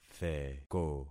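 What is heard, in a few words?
"theko", concatenated syllables; Affixing experiment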